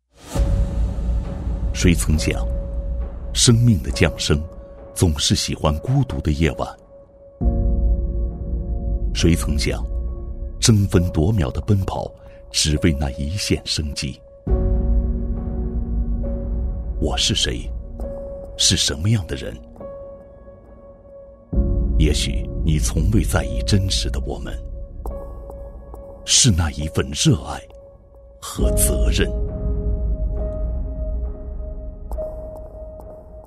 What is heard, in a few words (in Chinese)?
男 独白质感 热爱和责任